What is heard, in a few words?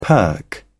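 "perk up", British English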